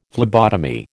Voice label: "phlebotomy", American

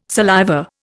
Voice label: "saliva", Indian

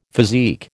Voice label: "physique", American

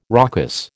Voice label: "raucous", American

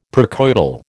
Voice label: "precoital", American

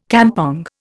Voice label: "kampong", Indian

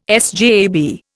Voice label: "SJAB", Indian

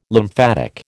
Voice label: "lymphatic", American

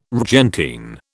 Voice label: "rgentine", British

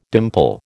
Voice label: "dimple", American

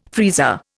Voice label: "freezer", Indian

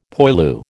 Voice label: "poilu", American

